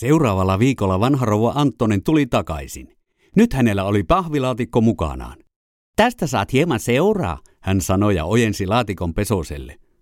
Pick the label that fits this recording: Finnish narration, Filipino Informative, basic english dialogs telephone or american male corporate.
Finnish narration